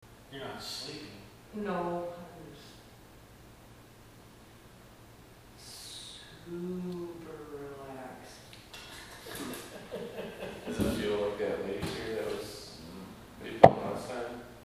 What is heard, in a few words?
Male voice